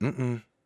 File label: Emote Negative